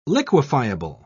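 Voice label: "liquefiable", British English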